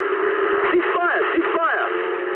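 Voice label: English player friendly fire mood medium; War Thunder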